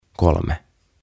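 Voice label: "Kolme", "Three", Finnish, English; OTAVA KONSERNI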